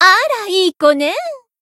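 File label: Voice healed, Japanese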